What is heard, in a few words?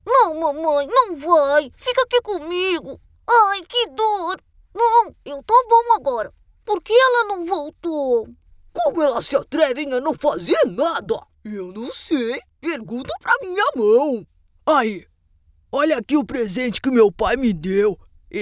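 Brazilian Portuguese, female, characters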